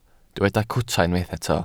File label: "cwta", Welsh